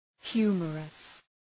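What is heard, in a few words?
"humorous", English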